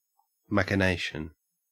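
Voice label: "machination", Australian English; pronunciations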